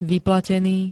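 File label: "vyplatený", Slovak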